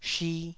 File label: "shi2", taiwanese Syllables